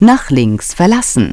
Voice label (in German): "nach links verlassen", Navigation; DISTEFORA Navigation; Mobile Navigator Notebook